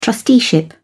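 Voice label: "trusteeship", British English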